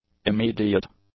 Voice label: "immediate", English; adjectives